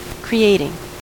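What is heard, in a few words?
"creating", American English; pronunciations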